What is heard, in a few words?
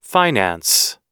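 "finance", US English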